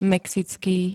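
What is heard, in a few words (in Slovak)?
"mexický", Slovak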